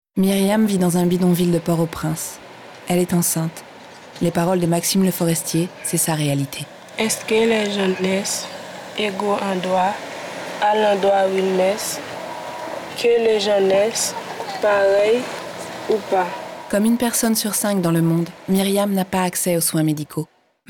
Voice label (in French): Spot Radio; Haiti